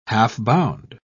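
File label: "half-bound", British English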